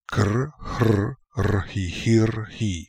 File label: "Krhrrhihirhi", pronounciations; Fourth Way; Beelzebub